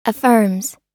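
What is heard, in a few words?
"affirms", US English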